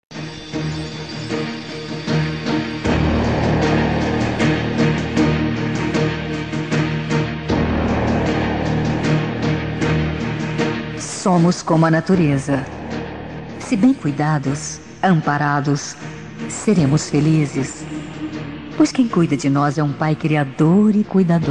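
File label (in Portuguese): Evangélica Aniversário Social, female, telemensagens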